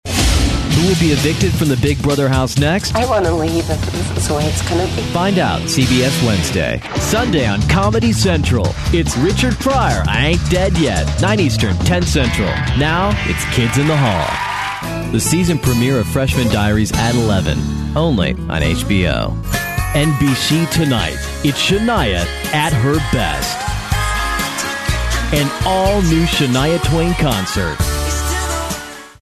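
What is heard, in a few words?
English US male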